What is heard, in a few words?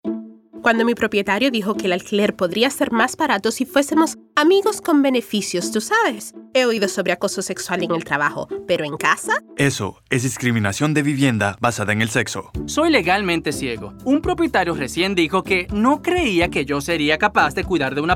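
Radio Spanish; NFHA-HUD; Localized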